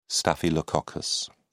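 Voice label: "staphylococcus", British English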